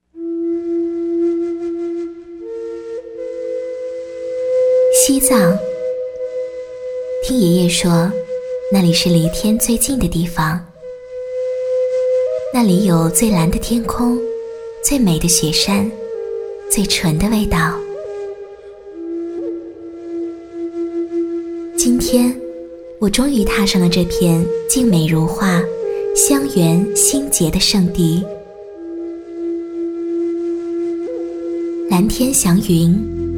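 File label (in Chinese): 女国大音-独白-西藏-微电影旁白-神秘-安静-虔诚-美好; 【3.独白 西藏 微电影旁白 神秘 安静 虔诚 美好】藏地寻香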